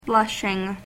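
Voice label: "Blushing", English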